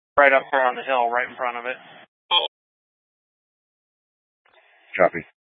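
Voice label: Incident Tac 2, Scanner audio recording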